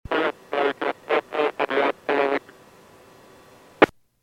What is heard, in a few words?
PAGING